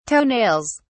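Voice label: "toenails", British English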